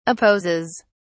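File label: "opposes", British English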